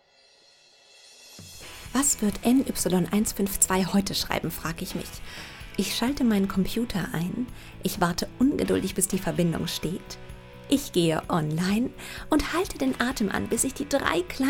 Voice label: GERMAN MOVIE CHARACTER; KATHLEEN KELLY